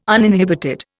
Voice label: "uninhibited", Indian

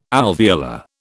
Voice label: "alveolar", British

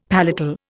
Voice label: "palatal", Indian; Dictionary

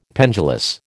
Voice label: "pendulous", American

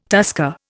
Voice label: "tusker", Indian

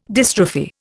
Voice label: "dystrophy", Indian

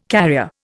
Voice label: "carrier", Indian

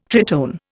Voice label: "tritone", Indian

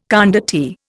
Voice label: "condottiere", Indian

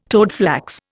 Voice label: "toadflax", Indian

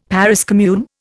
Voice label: "Paris commune", Indian; Dictionary

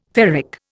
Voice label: "ferric", Indian

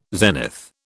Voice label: "zenith", British

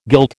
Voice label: "guilt", American

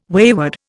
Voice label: "wayward", Indian

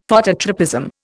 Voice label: "Phototropism", Indian; Dictionary

